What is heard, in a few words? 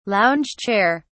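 "lounge chair", British English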